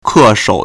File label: "ke4shou3", Chinese